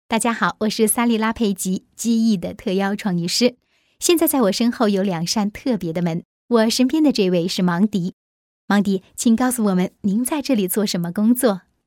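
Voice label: Chinese narration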